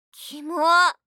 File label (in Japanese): "きも…", 相づち・反応系【ネガティブ】; ASMR